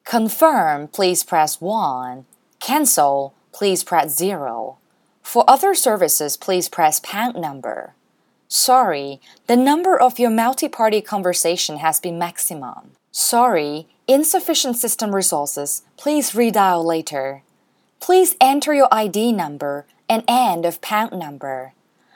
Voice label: 英女

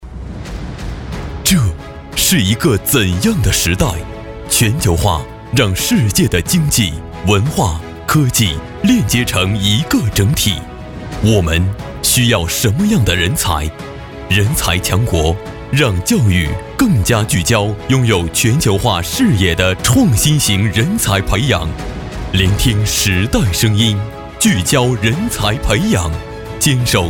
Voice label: 男 宣传片 人才强国

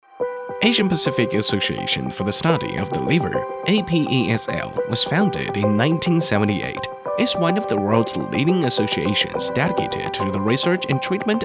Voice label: 英男 英语 年轻 企宣

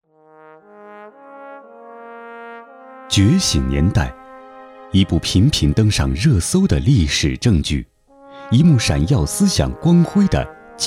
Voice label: 男 纪录片; 觉醒年代

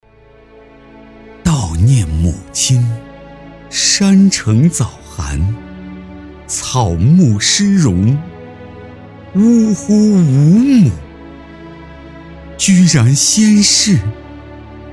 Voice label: 男 旁白 悼词旁白

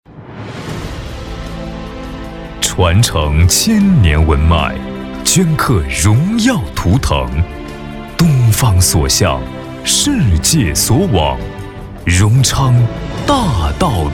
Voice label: 男 专题 大气 厚重; 大道东方

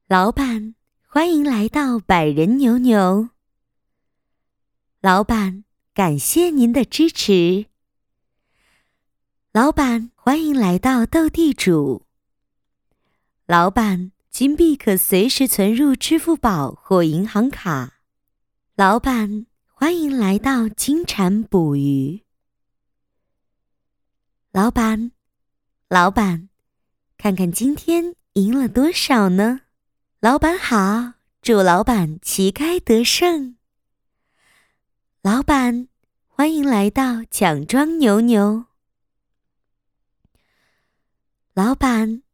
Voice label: 女 棋牌 欢迎词

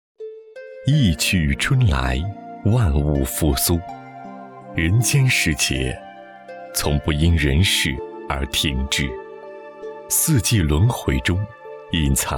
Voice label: 男-城市宣传; 脉搏

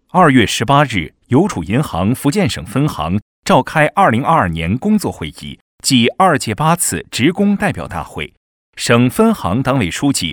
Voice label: 男 银行; 邮储银行福建省分行

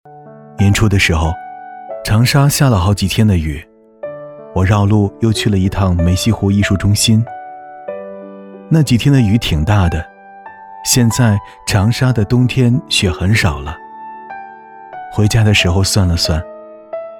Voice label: 男-内心独白-游记

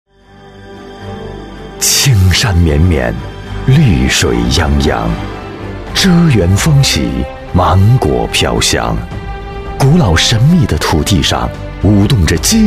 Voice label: 男 招商宣传片, 国语男声配音, 混厚专题

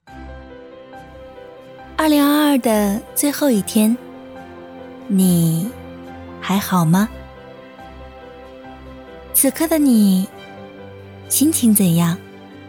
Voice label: 女 走心 甜美; 2022最后一天

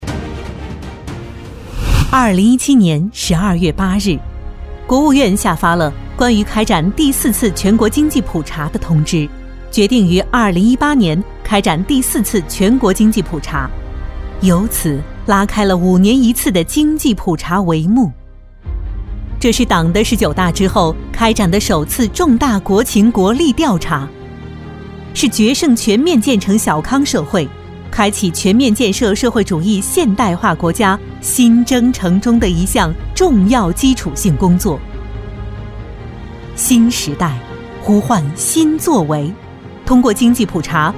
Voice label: 女 纪录纪实; 全国经济普查工作纪实

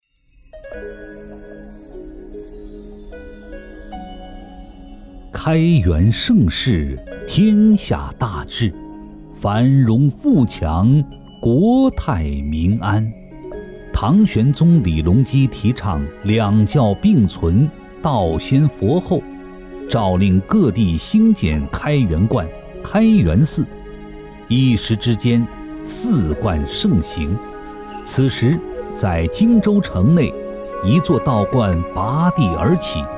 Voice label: 男 纪录片 宗教; 开元观

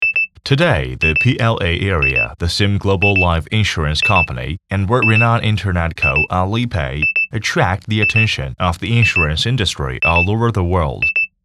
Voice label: 男 英文 英文新闻播报

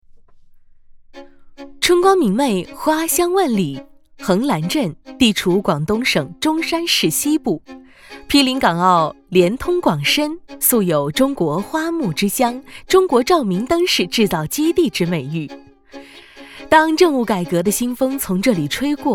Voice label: 女,专题片; 政务巾帼潜心筑梦

